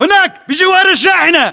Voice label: landmark near semi, battle chatter, english